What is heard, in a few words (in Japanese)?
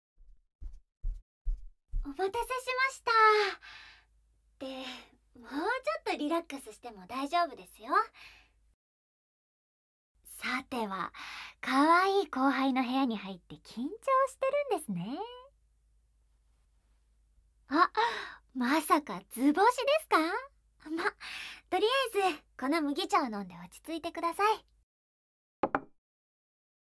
後輩の部屋で膝枕でねっとり耳かき; 負けヒロインの後輩ちゃん～憧れのセンパイと卒業までの最後の時間～; CV:山根綺